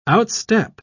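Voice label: "outstep", English, British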